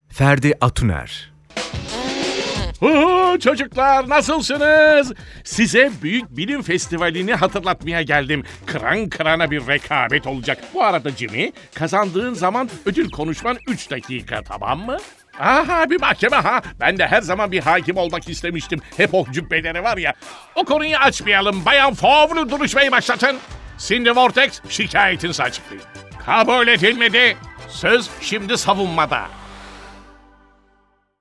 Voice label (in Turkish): türkçe seslendirme